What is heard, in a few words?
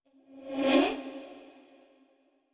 curious short; yamiko